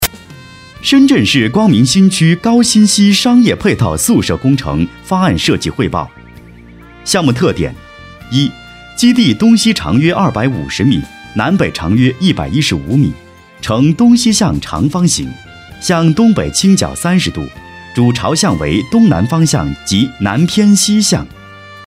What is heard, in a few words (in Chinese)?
多媒体 项目规划 宿舍规划, 男国; 光明新区高新西宿舍规划